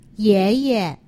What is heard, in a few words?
"yeye", Chinese; Survival Chinese